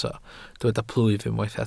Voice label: "plwyf", Welsh